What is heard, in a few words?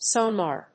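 "sonar", English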